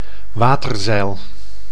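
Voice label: "waterzeil", Dutch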